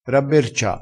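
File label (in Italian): "rabbercia", dialettale, crespinese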